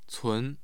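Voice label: "cun", Chinese, second tone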